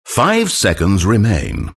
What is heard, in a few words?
announcer count pick; Stanley Parable